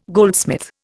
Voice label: "goldsmith", Indian